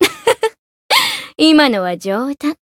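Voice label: Voice story, Japanese; kikyou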